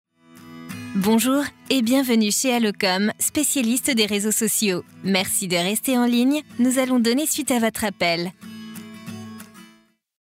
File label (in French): Message de pre decroche; ALLOKOM